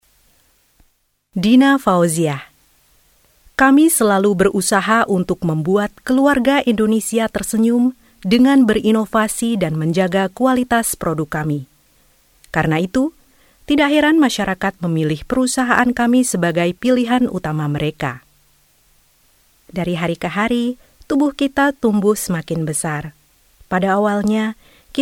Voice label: narrator

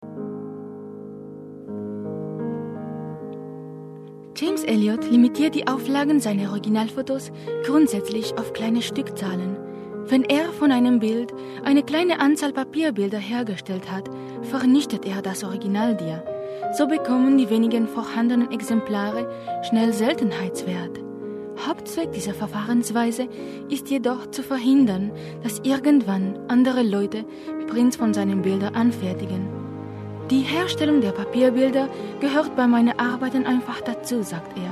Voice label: German; Europe

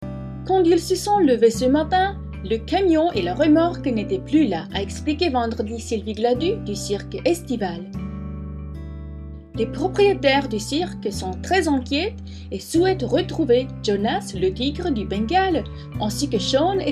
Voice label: French; Europe

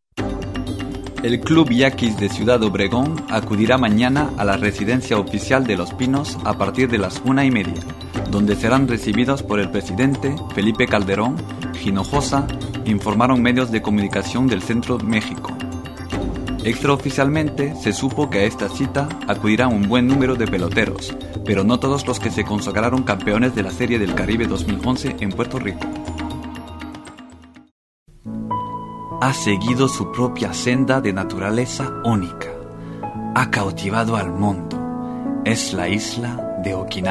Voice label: Spanish; Europe

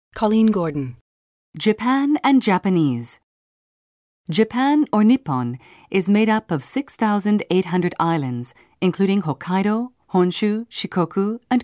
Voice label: narrator USA F

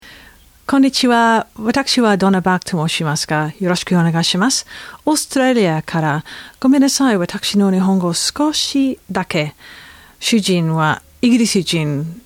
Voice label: Japanese

